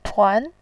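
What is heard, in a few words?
"tuan", Mandarin Chinese, second tone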